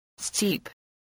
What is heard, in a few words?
"steep", voice